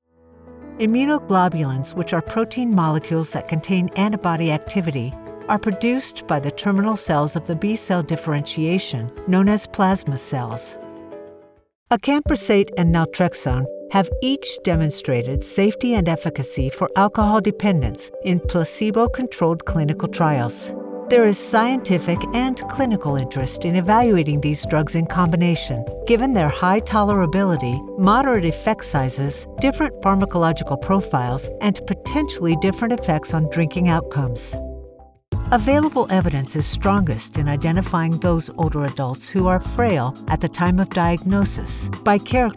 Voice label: Medical Narration